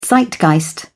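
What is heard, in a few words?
"zeitgeist", British English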